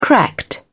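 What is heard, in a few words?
"cracked", British English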